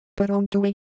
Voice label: Ewe, ideophones; Shape

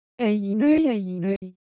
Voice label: Korean, Ideo Phones; Motion

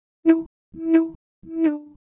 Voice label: Ewe Motion, Ideo Phones

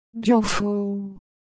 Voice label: Ewe, ideophones; Shape

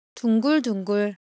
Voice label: Korean, Ideo Phones; Shape

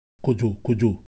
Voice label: Ewe Motion, Ideo Phones

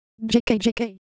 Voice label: Ewe Texture, IdeoPhones

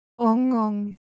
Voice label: Korean, Ideo Phones

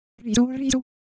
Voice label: Japanese Texture, Ideo Phones

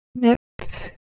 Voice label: Semai Sound; ideophones

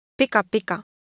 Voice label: Japanese Color Visual, Ideo Phones

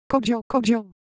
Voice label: Ewe Motion, Ideo Phones

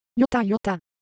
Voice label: Japanese Motion, Ideo Phones

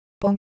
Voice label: Japanese Sound No Pros, Ideo Phones

